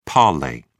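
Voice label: "parlay", British English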